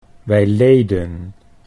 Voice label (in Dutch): wij leden, Dutch